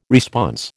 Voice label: "response", American